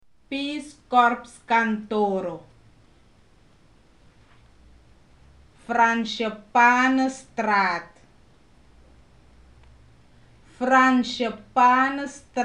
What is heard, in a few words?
phrases, Sranan; PeaceCorps